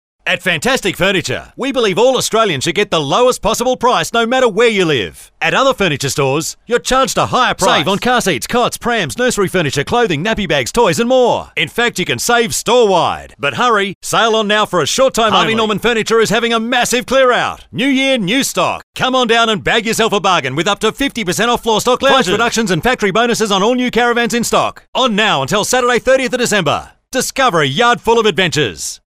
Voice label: Hard Sell